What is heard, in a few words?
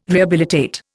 "rehabilitate", Indian